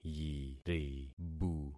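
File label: "yiribu", concatenated syllables; Affixing experiment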